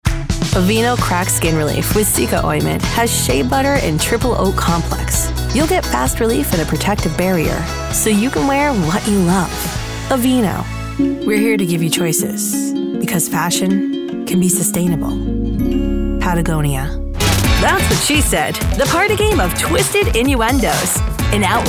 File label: COMMERICIAL